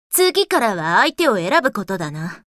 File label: Voice victory